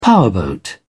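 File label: "powerboat", English, British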